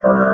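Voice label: "her", robot voice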